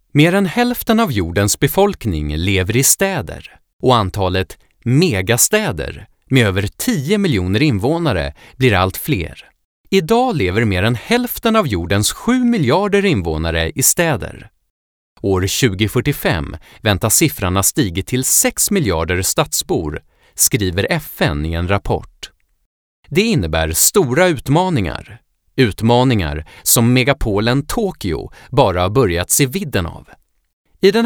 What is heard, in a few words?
Swedish documentaries